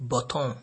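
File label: "bottom", Nigerian Pidgin, male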